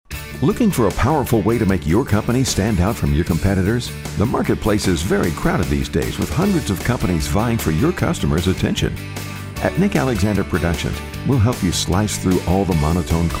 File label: Jingle VO